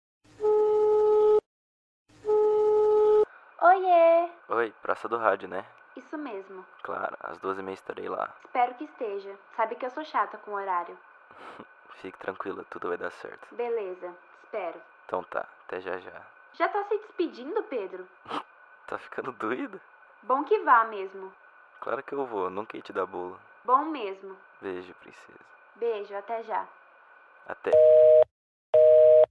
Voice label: haha skit